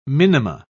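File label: "minima", British English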